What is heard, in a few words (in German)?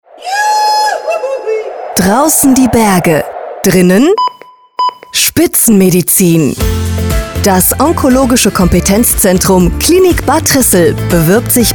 Funkspot Recruiting Pflegepersonal; ROSENHEI Klinik Bad Trissl